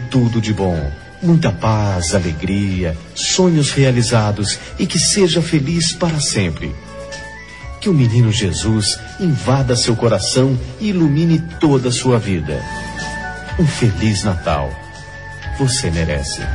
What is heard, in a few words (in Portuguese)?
Mensagem Presente Especial (Voz Masc)